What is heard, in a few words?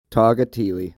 "Toghotthele", Koyukon, Middle Yukon River; (tahg-ha-TEE-lee)